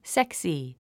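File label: "sexy", English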